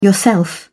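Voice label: "yourself", British English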